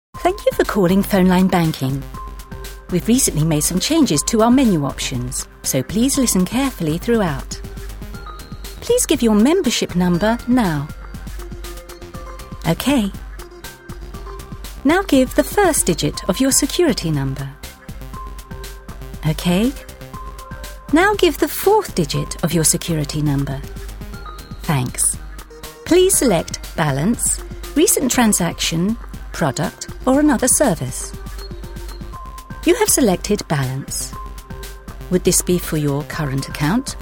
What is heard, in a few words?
On Hold